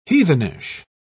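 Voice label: "heathenish", British English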